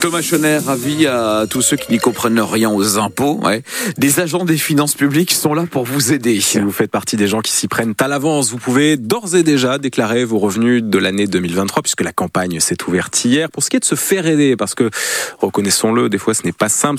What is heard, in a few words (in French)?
reportage complet